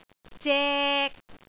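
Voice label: "zek3", Cantonese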